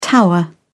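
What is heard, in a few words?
"tower", British English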